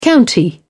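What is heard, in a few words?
"county", British English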